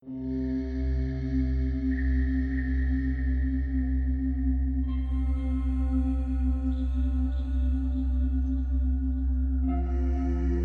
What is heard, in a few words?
Sleep Meditation